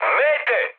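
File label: Serbian enemy detected mood high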